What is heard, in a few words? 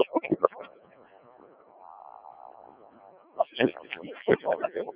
decoded speech, 7177KHz